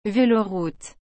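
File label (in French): "veloroute", French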